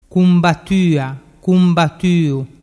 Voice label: "cumbatuatuu", dialettu